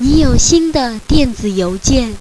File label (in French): putonhua, paroles